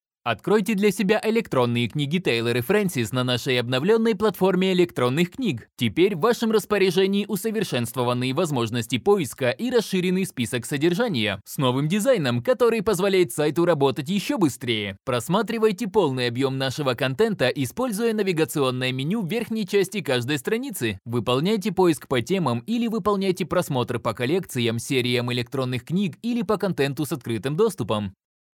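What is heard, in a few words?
Russian Russia male upbeat; russian voice over talent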